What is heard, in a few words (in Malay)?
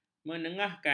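"mengenengahkan", Malay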